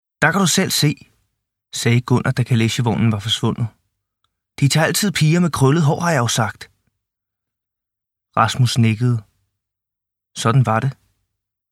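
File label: Danish Audiobook Male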